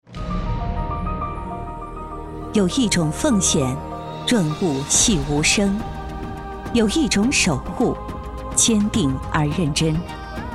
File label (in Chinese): 女 宣传片 支援服务支队; 有一种奉献